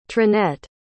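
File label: "trinette", English word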